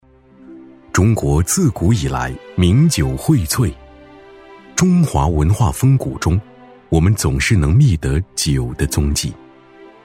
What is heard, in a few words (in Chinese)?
男, 纪录片酒类; 大气主流; 高端大气